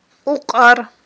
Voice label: "укьар", Aghul

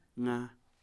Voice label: "five", Kham

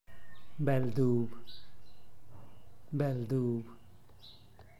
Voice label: bell duub, zapoteco teotitlan

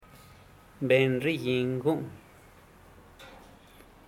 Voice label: "ben riging gu'ung", zapoteco teotitlan; carnicero

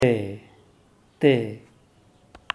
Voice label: b te uno, zapoteco teotitlan

